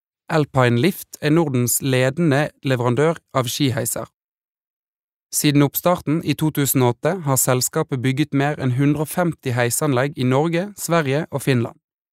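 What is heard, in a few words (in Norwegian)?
no informativ, Norwegian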